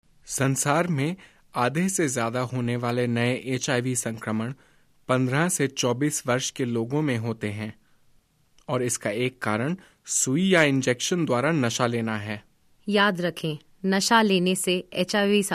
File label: spot hindi